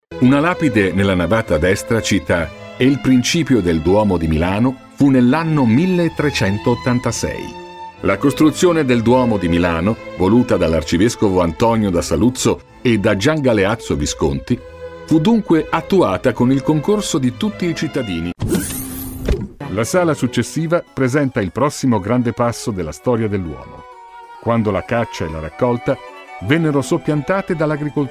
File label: audioguide